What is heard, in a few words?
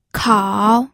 "kao3", pinyin